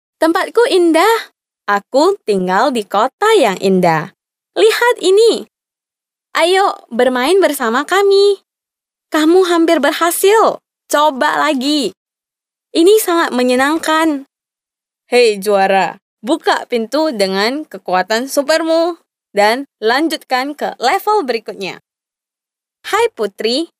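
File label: INDO female children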